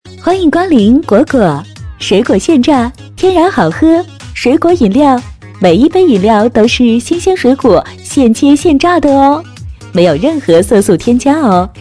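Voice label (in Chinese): 水果现榨 促销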